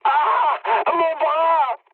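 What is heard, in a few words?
damaged pilot, mood high, French; War Thunder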